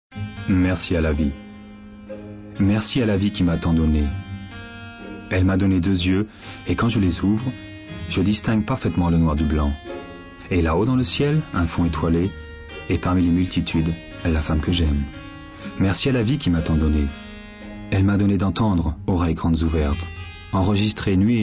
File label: poem